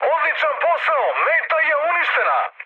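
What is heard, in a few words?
Serbian bomb success mood high, speech; War Thunder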